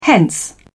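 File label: "hence", British English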